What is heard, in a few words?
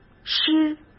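"湿", Mandarin Chinese; chinese-flashcards; hsk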